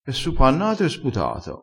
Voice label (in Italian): "e su pa nato", crespinese